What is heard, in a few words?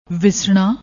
"visna", Punjabi